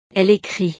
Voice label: "elle ecrit", frances

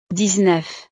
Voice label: "dix-neuf", frances

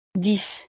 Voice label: "dix", frances

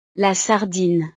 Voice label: "la sardine", frances

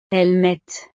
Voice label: "elles mettent", frances

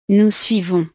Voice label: "nous suivons", frances

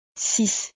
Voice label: "six", frances

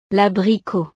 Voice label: "l abricot", frances; frutas

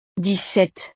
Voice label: "dix-sept", frances

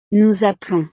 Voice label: "nous appelons", frances

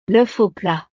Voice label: "l oeuf au plat", frances; desayuno

